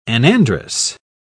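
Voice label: "anandrous", British English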